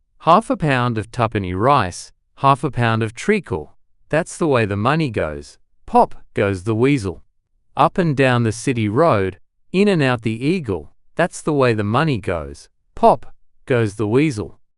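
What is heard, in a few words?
british male Australian, classic rhymes; Pop Goes the Weasel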